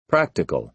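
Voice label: "practical", American English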